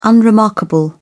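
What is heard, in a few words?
"unremarkable", British English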